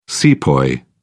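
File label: "sepoy", British English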